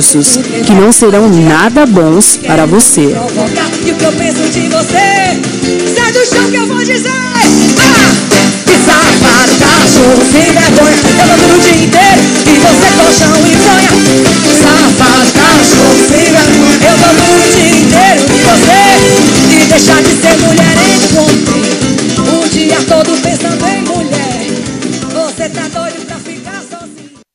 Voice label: TELEMENSAGEM BRONCA GERAL EX MARIDO QUE NÃO PAGA PENSAO PROS FILHOS

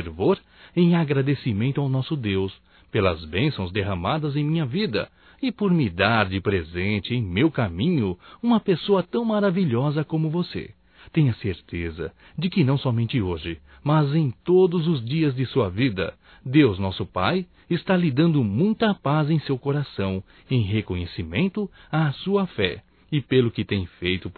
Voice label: NATAL ANO NOVO SOGRA (M); Telemensagens 2017

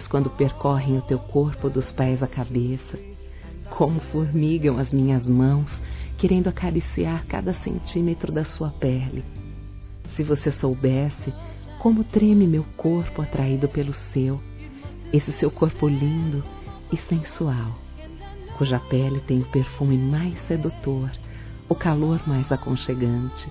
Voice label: Romantica Sedução Quero Compartilhar Desejo Feminino; Telemensagens

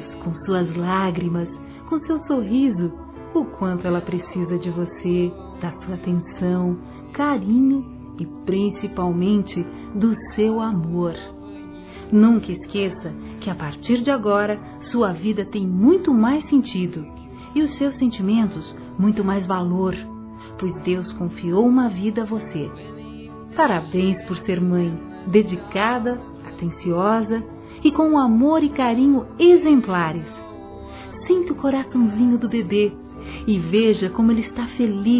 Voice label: HOMENAGEM P MÃE DE RECENASCIDO F, Telemensagens, Dia das Mães; Telemensagens 2017

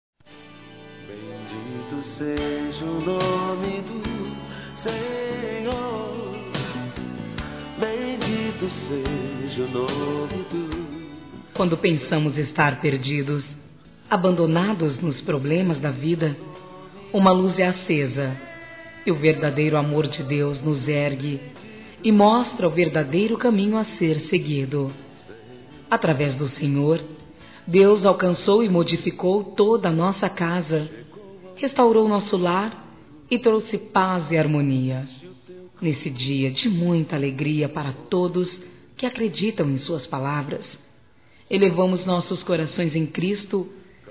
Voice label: Trilha ANIV. PASTOR F, Telemensagens Gospel fem; Telemensagens 2017

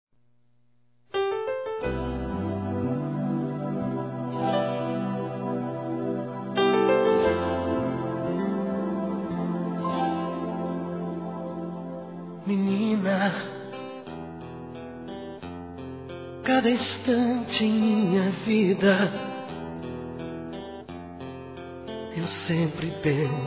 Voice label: Aniversário Sertanejo Romantico Feminino

